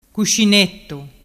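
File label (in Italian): "cuscinettu", Vernazzese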